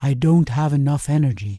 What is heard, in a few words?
Tauren Female err no energy